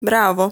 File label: "Bravo", Italian